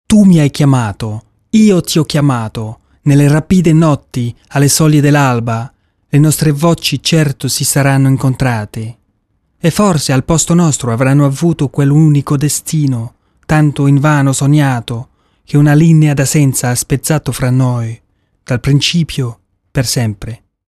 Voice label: italian